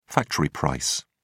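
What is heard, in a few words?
"factory price", British English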